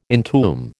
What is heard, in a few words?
"entomb", American